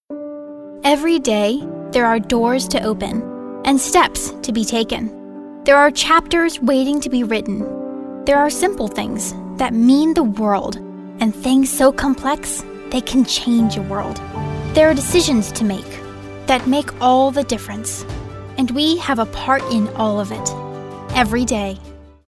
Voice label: warm